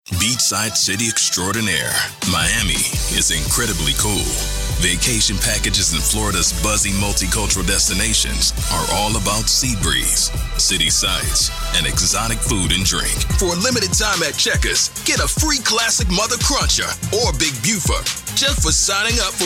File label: Commercial Demo